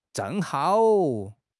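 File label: "zheng4 hao3", Chinese; 汉语口语新时代-初级下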